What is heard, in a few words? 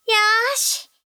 Vo Female; gekiban